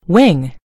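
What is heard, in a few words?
"wing", British English; gcompris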